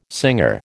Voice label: "singer", American